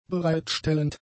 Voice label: "bereitstellend", German; adjectives

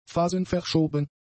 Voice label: "phasenverschoben", German; adjectives

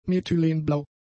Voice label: "methylenblau", German; adjectives

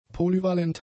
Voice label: "polyvalent", German; adjectives

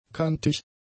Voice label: "kantig", German; adjectives

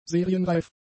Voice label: "serienreif", German; adjectives

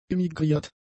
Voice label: "immigriert", German; adjectives

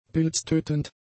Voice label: "pilztötend", German; adjectives

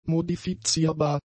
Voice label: "modifizierbar", German; adjectives

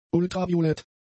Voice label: "ultraviolett", German; adjectives

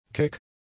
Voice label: "keck", German; adjectives

